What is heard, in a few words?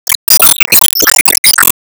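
Voice Emo Glad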